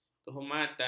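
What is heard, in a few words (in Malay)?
"kehormat", Malay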